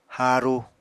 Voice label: "haaru", Apatani